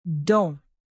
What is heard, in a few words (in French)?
"dent", French native; alphabet fr